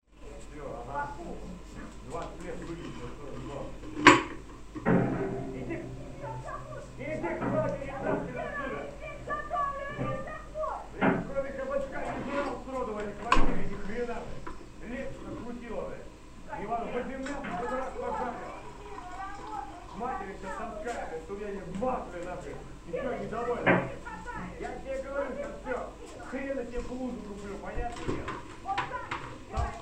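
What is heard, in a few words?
neighbours quarrel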